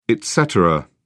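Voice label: "etc", British English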